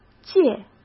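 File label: "借" Mandarin Chinese; hsk; chinese-flashcards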